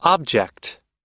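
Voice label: "object", American English; object /ɑ́:bdʒekt; (知覚できる)物、物体、対象、目的、目標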